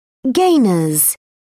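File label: "gainer", British English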